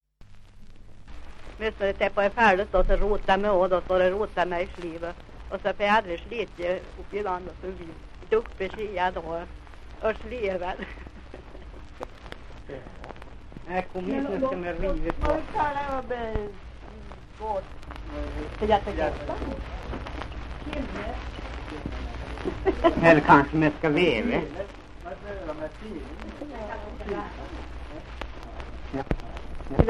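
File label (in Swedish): Hede Härjedalen, dialekter; Folkminnen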